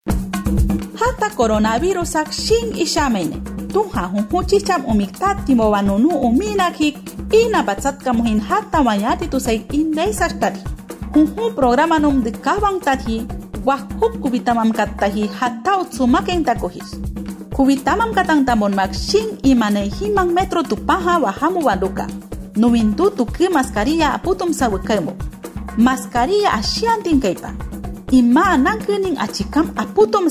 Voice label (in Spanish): awajun uso de mascarillas 1 voz, spot de radio; medidas de prevencion